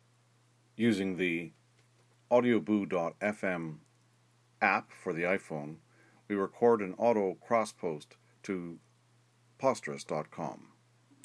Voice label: podcast